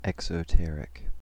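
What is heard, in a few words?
"exoteric", American English; pronunciations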